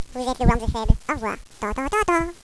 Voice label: children discuss; valve